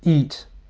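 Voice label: "eat", English word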